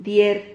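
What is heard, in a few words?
"dier", Spanish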